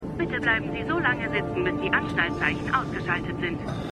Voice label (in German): Durchsage; AlaskaDaily